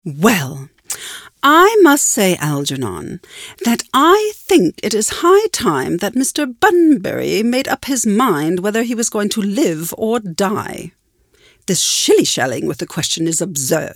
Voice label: Monologue; LadyBracknell; LOFT VOICES

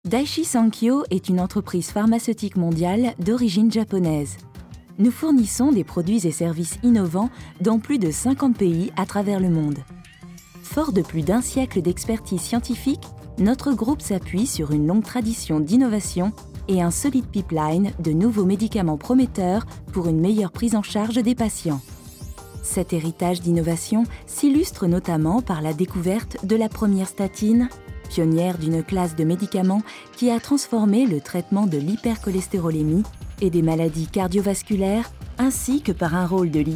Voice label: corporate; daiichi

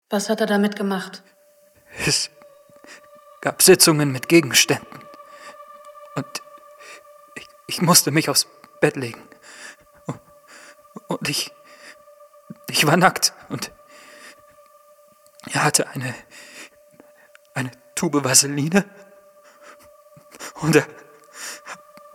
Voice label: Synchron Missbrauch, Lip-Sync (Synchron), German

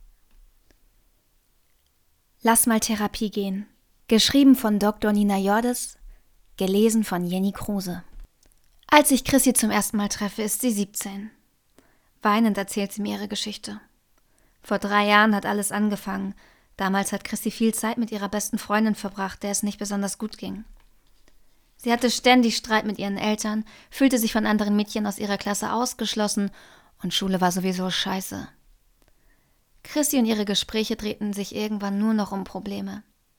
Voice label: Hoerbuch, Audiobook, German; Lass mal Therapie gehen

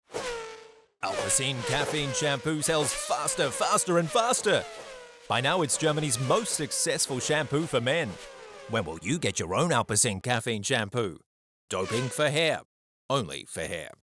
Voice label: Australian EN Werbung Off; Alpecin; LOFT VOICES

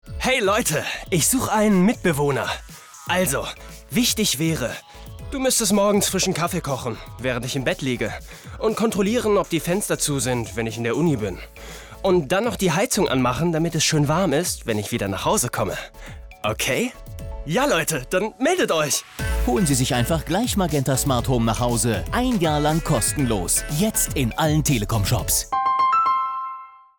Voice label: COMMERCIAL ON; Telekom SmartHome Mitbewohner

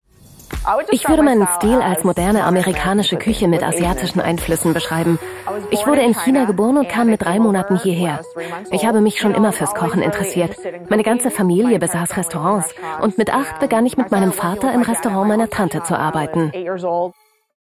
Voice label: VoiceOver; The Iron Chef

